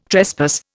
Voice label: "trespass", Indian

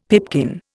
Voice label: "pipkin", Indian; Dictionary

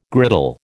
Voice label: "griddle", American

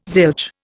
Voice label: "zilch", Indian

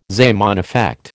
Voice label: "Zeeman effect", American

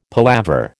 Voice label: "palaver", American

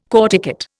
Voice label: "corticate", Indian

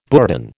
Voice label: "bourdon", American

